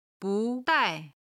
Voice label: "bu2 dai4", Chinese

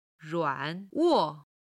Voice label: "ruan3 wo4", Chinese

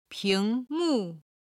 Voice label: "ping2mu4", chinese

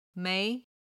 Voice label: "mei2", Chinese

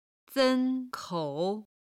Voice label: "sen1 kou3", Chinese

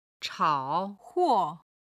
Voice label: "chao3huo4", chinese

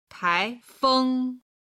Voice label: "taifeng", chinese

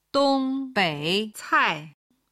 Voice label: "dongbeicai", Chinese

